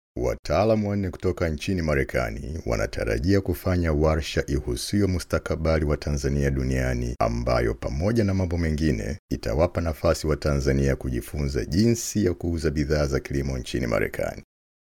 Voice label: swahili commercial; English (African); United States